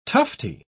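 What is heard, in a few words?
"tufty", British English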